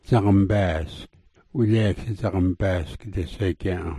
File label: "dzogmbaask", smalgyax